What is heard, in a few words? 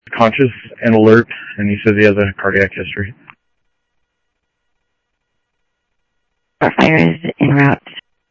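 Sheriff Lake Patrol, scanner audio trunk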